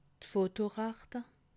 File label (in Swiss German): "fotorachte", alsace